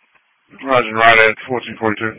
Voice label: EMS Dispatch